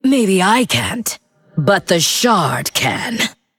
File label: Female Undead; Mission6 Exchange